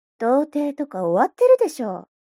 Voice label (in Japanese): mob 女子 台詞